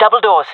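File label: location double doors, jungle fem; player vo jungle fem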